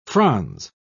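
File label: "frons", British English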